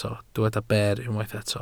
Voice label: "ber", Welsh